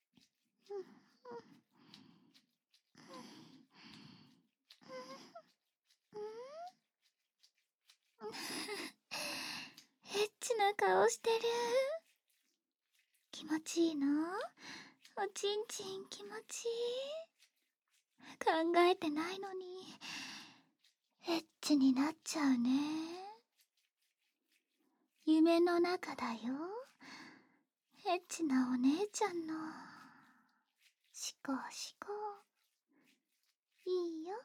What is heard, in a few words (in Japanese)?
甘々とろとろver; 姉ねぇの秘密の安眠夢精ボイス